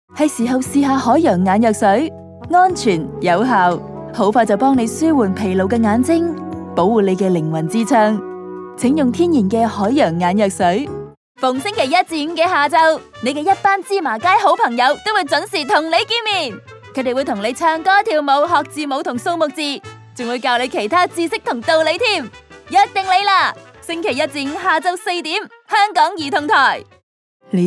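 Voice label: Cantonese TVC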